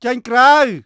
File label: Khmer Order; Beyond the Sword; Units